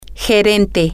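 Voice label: Spanish, female; manager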